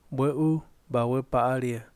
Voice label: "océano", Yaqui; Vocabulary

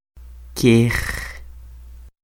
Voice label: "këj", Quiché; caballo; horse; INALI; UTexas; CHQETA

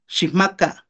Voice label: "xijmaka", Náhuatl; dale; give him

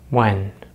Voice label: "when", American English; easypronunciation

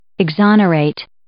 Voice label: "exonerate", English

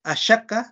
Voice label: "axakaj", Náhuatl; nadie, ninguno; nobody

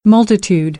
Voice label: "multitudinous", American; ə - ɪ

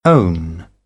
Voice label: "own", British; oʊ - əʊ; English

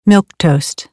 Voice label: "milquetoast", American English; oʊ - əʊ

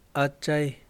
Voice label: "padre", Yaqui; Vocabulary

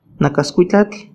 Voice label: cerilla nakaskuitlatl earwax, Náhuatl

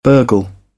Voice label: "burgle", British; ɝː - ɜː; English

